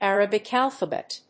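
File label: "Arabic alphabet", English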